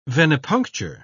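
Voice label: "venepuncture", British English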